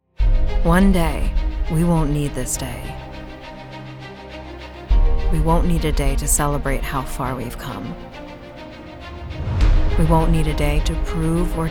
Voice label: english american promos